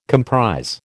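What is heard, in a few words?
"comprise", American English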